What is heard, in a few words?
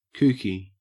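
"kooky", Australian English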